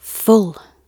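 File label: "full", British English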